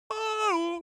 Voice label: human vocal cartoon male greet short; smartsoundfx